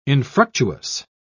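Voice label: "infructuous", British English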